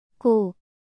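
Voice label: "고", Korean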